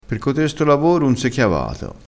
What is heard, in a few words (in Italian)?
"chiavato", crespinese